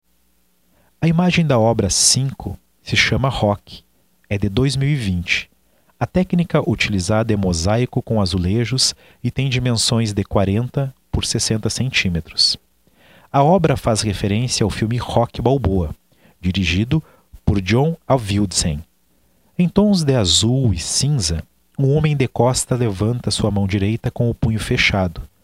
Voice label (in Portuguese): audiodescrição; galeria virtual